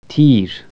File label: arrow, Persian